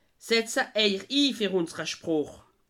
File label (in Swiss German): "setza", alsace